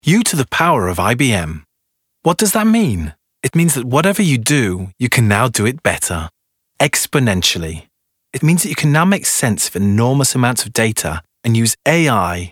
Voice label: Voix off Anglais; IBM

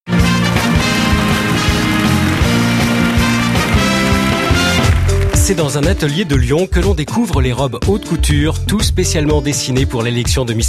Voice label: Voix Off Emission TV; Miss France

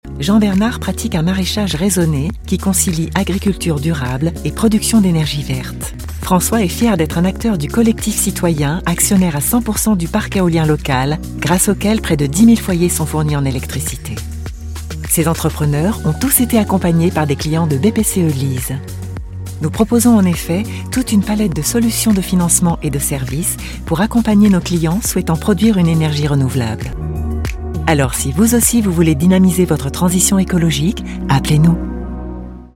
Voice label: souriant chaleureux doux; BPCE

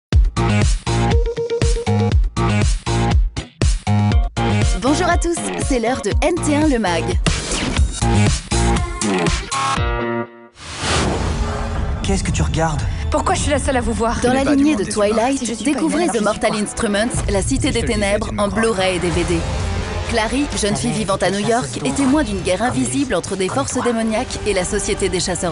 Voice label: Emission le mag voix off; NT1

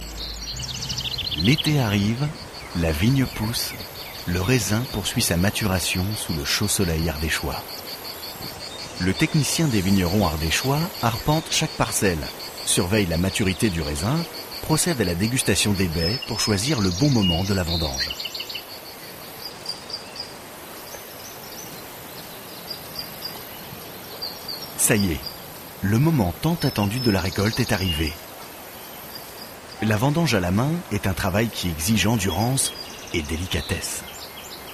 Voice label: Guide Narratif Voix Off; UVICA

